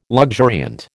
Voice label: "luxuriant", American